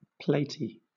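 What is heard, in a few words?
"platy", British English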